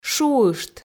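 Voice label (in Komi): "шуышт", Komi, female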